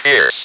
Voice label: "PIERCE", Speak & Spell

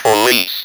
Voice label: POLICE, Speak & Spell

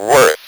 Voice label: "WORTH", Speak & Spell

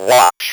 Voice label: "WATCH", Speak & Spell

